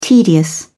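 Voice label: "tedious", British English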